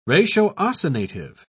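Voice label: "ratiocinative", British English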